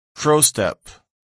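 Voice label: "crowstep", English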